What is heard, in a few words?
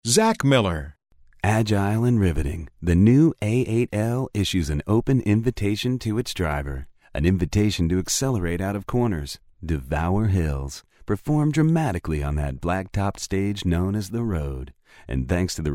BREATHY